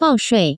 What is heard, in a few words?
"baoshui", Taiwan Chinese